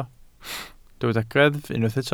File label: "greddf", Welsh